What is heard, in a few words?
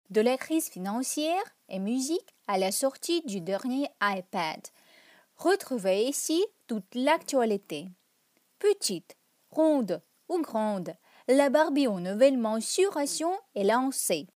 French